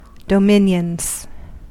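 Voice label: "dominions", US English; pronunciations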